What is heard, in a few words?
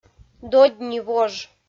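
"додь ни вож", Komi, female; kpv audio dict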